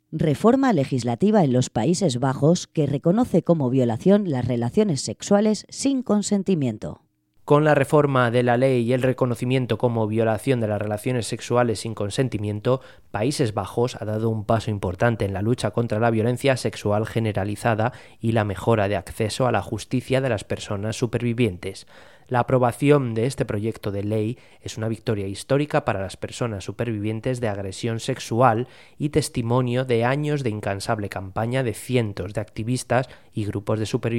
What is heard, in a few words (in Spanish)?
informativo; paises bajos ley violacion